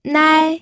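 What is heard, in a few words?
"nai", first tone, pinyin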